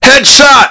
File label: headshot, man; MultiKill